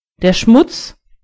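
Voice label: dirt, German voices words; gcompris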